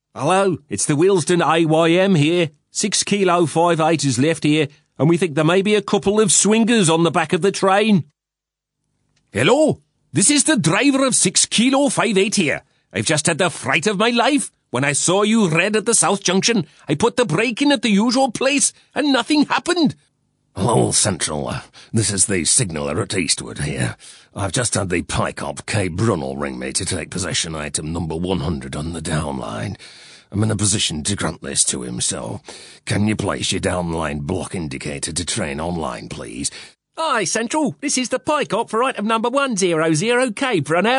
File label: uk dub characters